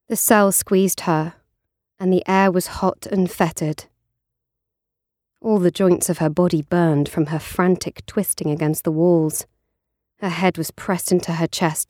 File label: AUDIOBOOK; The Bees